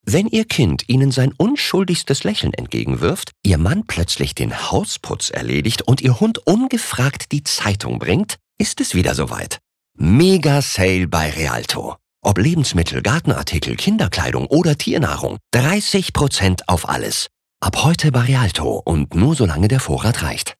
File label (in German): Freundlich; Realto